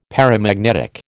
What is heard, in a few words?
"paramagnetic", American